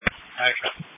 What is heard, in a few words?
Police Tactical Encrypted